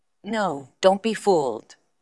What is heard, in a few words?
VoiceActing es F